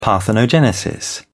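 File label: "parthenogenesis", British English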